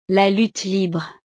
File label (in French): "la lutte libre", frances; deportes